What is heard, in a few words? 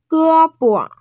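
"ge1bo5", Mandarin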